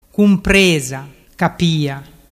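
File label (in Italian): "cumpresacapia", Vernazzese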